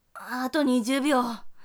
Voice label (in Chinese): 还剩20秒, 女生; 俺娘; 计时30秒